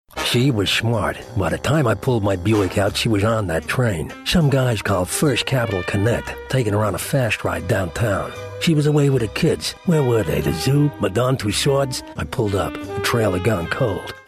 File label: north american